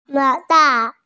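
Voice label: "mo2fang3" Mandarin Chinese, female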